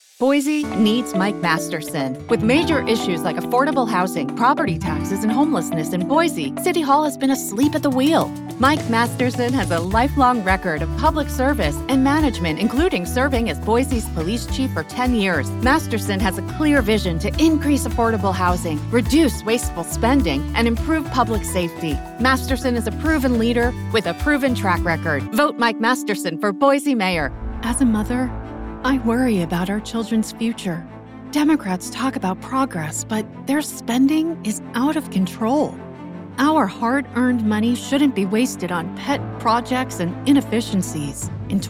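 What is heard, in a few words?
Republican Political Ads, female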